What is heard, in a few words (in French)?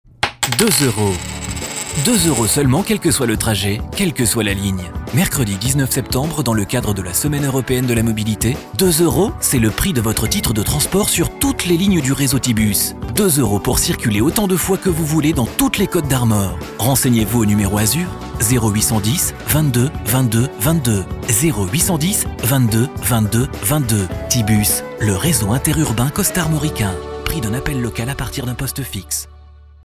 radio pub spot